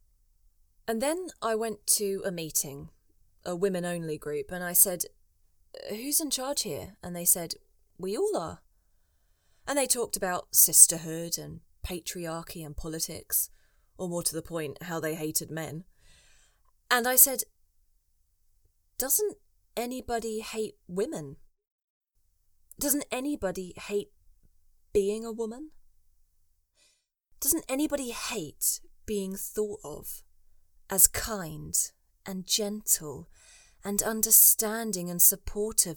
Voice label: Monologue Drama